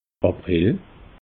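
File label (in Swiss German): "april", Alsatian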